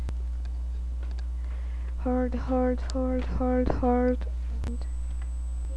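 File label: "hold", spoken word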